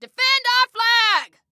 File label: Defend Flag, Female